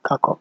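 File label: "kakup", Nigerian Pidgin, male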